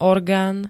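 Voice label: "organ", Slovak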